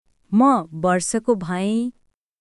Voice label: Nepali, basic phrases